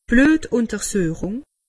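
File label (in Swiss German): "bluetuntersuchung", Alsatian; medecine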